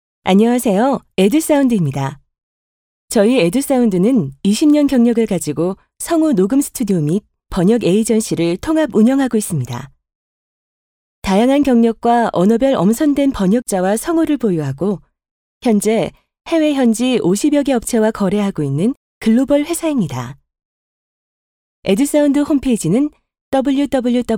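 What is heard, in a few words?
Korean female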